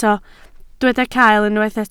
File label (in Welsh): "cael", Welsh